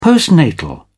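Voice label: "postnatal", British English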